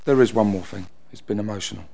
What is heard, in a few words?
emotional